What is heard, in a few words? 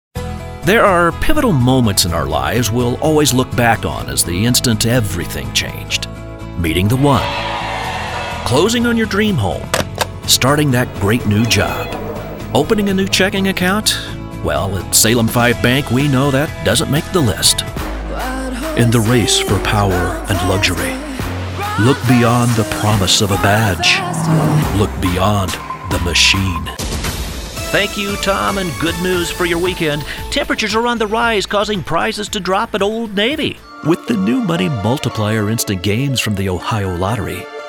voiceover male